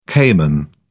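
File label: "caiman", English, British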